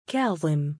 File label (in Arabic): "kaaZim", male; Arabic; Kadhim、Kaadhim、Kazim、Kaazim; كَاظِم; カーズィム; given name